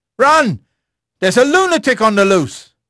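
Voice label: English male; Pirates Of The Caribbean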